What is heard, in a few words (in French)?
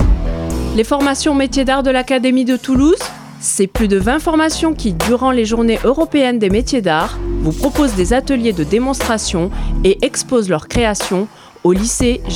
Spot Radio; JEMA 2024